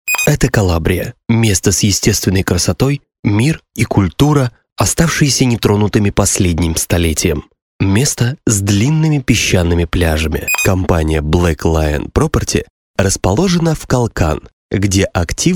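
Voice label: russian male narration; voice demos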